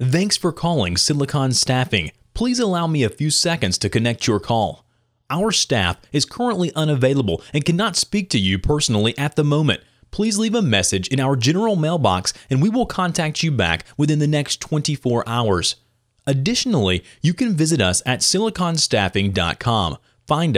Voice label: Voicemail, VoiceOver